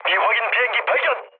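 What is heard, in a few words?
Korean enemy detected mood low, speech; War Thunder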